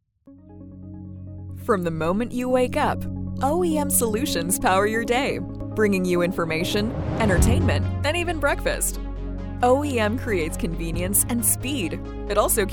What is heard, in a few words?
presentation sample VO